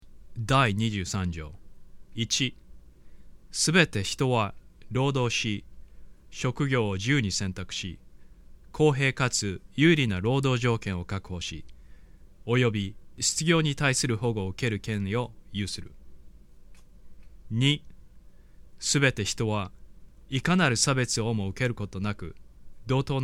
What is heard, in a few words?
Japanese